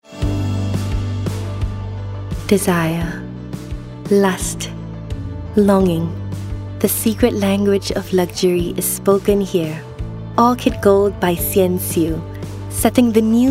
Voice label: Asian English; Orchard-Gold